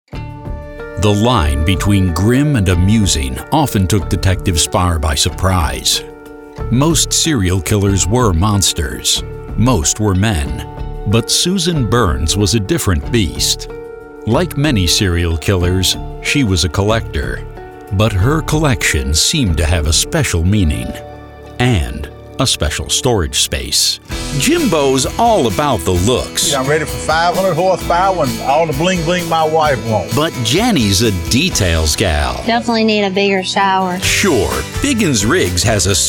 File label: TV Narration, documentary narrator